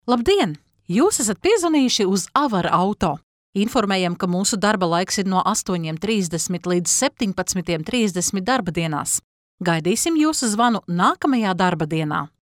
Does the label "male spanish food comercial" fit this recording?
No